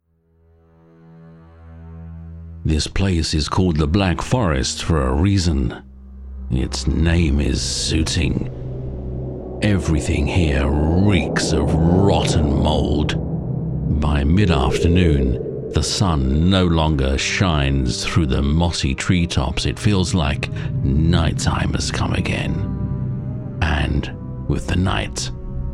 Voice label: game character, game dubbing male voices british accent